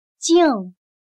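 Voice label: "净" Chinese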